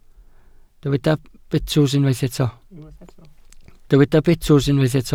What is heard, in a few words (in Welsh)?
"betws", Welsh